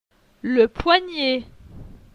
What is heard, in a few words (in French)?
"le poignet", francais; phonetique; la graphie gn